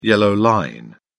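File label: "yellow line", British English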